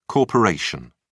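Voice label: "corp", British English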